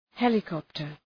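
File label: "helicopter", English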